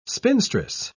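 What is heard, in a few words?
"spinstress", British English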